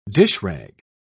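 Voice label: "dishrag", British English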